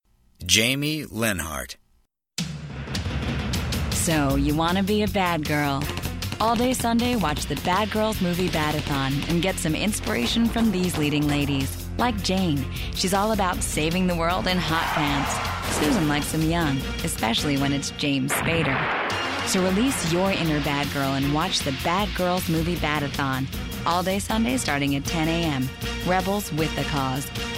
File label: Promo Voiceover